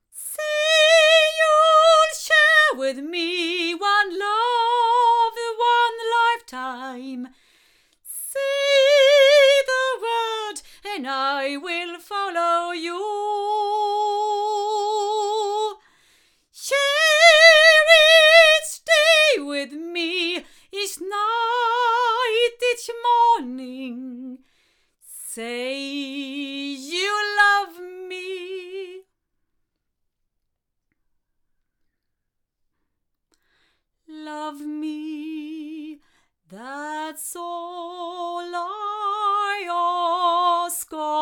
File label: female